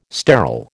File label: "sterile", American